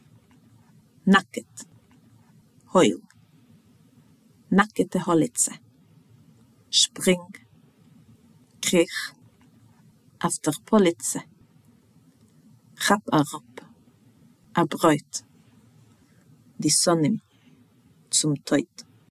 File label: Ordlista till Nakete holitse, Jiddish